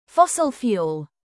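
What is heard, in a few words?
"fossil fuel" British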